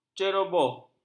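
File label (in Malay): "ceroboh", Malay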